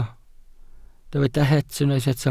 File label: "het", Welsh